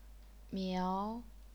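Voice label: "miao", second tone, chinese pinyin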